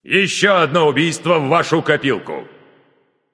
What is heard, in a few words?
slain you enemy, russian; caster